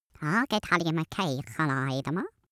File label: cartoon male talk long